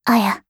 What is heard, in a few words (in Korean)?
attacked, KOR; Voice lIne; Eternal Return; Katja